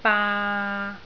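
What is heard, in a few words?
"baa3", Cantonese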